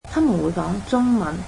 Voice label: cantonese they speak Chinese

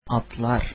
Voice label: turkish horses

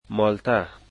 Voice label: oranges, dari

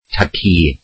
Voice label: welsh grandfather

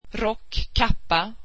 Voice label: swedish coat

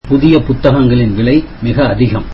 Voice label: tamil new books are expensive

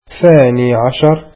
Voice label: arabic twelfth

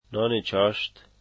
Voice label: lunch, dari

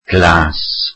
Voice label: welsh blue

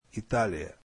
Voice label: russian Italy

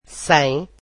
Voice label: portuguese without

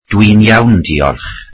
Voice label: welsh Im good thank you

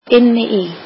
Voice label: "inside", Icelandic

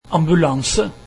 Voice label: norwegian ambulance

